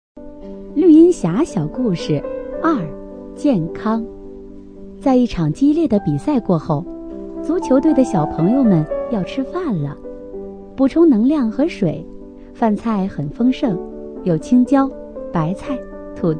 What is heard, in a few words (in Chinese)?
女 儿童有声读物 邻家阿姨